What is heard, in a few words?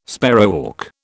"sparrowhawk", British